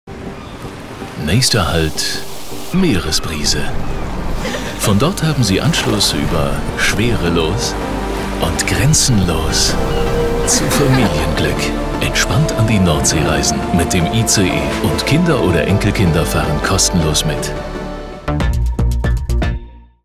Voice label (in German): Werbung; DB Meeresbrise